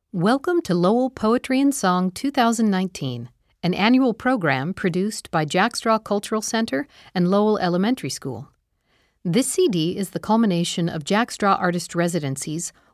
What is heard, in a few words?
Opening Narration